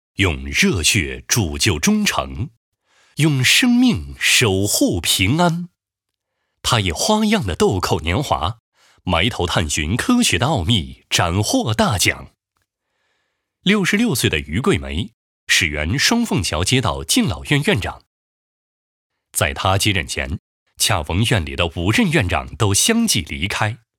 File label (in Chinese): 男57 感动人物（深情）; 温情旁白